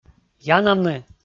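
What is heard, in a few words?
"янавны", Komi, male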